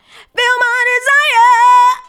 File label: FEEL MY, FEMALE VOICE; Best Service; Hallelujah